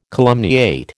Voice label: "calumniate", American

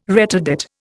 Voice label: "retardate", Indian

